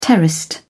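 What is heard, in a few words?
"terraced", English, British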